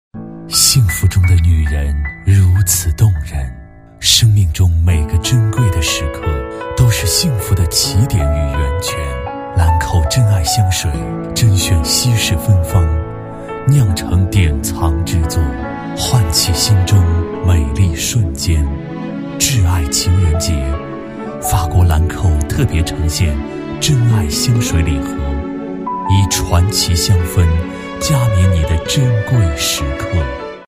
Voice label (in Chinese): 男40 抒情品牌广告 香水, 国语男声配音